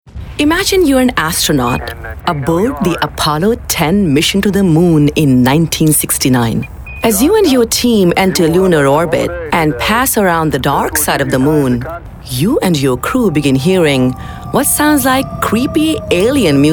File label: Narration